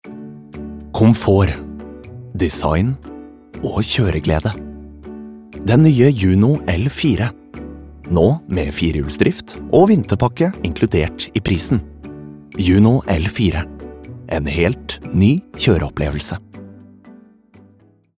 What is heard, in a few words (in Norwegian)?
no reklame